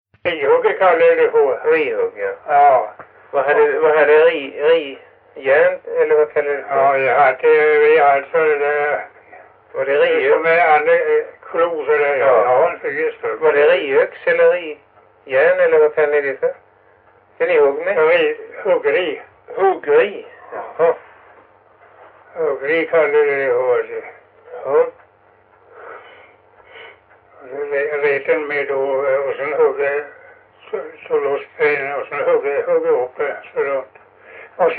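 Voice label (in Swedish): Stenåsa Öland, dialekter; Folkminnen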